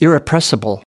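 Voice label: "irrepressible", US English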